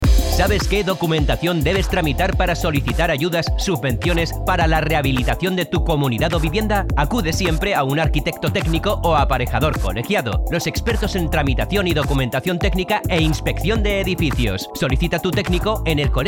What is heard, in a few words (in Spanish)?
cuña publicitaria